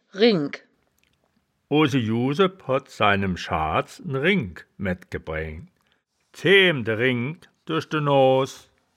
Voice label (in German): "ringk", wittlich